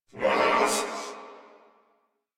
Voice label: Struggle Crewmen, Battle; Warframe; Corpus Crewmen; Crewman C

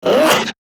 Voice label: Struggle, Battle Barks; Warframe; Grineer Manic

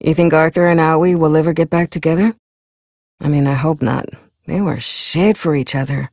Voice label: Ambient Barks, en; Warframe; Mall Romance; Lettie

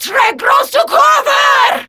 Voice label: Attack, Female

